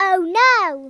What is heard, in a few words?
take cover, Kidz; Worms Armageddon